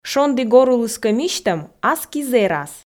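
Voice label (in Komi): "шонді горулыс кӧ мисьтӧм аски зэрас", Komi, female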